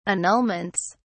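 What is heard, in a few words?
"annulments", British English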